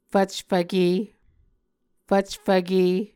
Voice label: "fvcfvke", muscogee